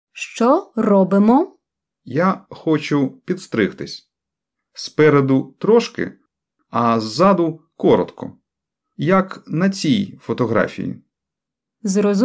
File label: Dialogue Slow